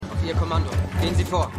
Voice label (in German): Polizist, Stimmproben; LO SVU